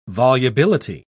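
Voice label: "volubility", British English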